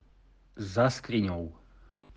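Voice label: "za skriňou", Slovak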